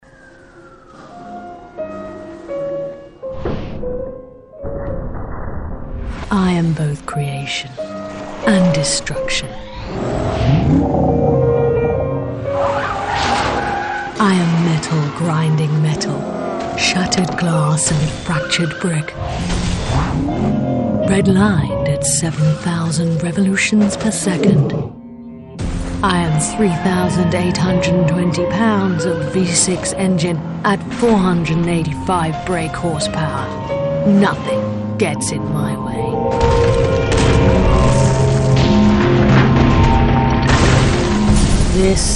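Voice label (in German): British English, Dramatisch; Fremdtake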